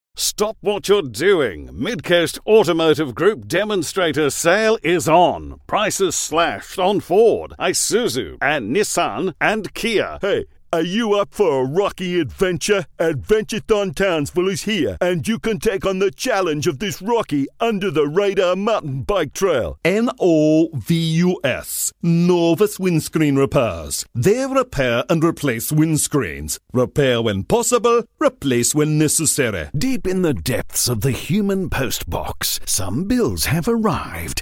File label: Impersonations